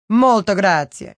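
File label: Thank You Italian, Female; Talking Sound Effects; Sound Effects:Voice; VoiceBox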